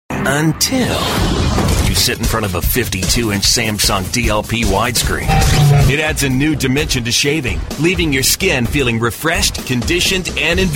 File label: happy